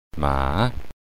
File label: "ma" horse, mandarin